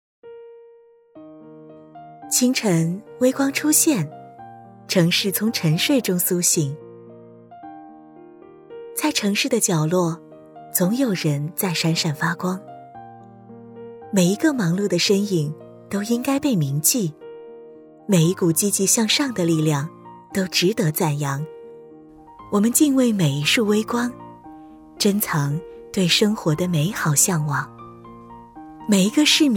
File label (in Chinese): 中文专题城管宣传片